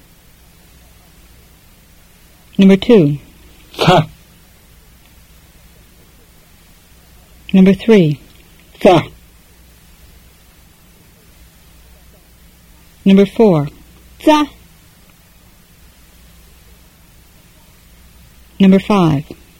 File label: Fluent Tibetan drill